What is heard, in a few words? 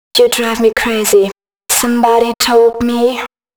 female, spoken hooks; Mutekki Media; Dance Ultra Voice VOL-1